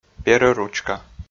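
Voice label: "перӧ-ручка", Komi, male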